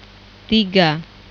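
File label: "tiga" Indonesian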